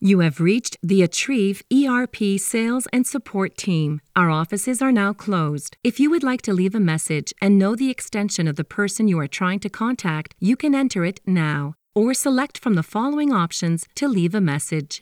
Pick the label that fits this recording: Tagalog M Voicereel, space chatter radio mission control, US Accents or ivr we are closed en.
ivr we are closed en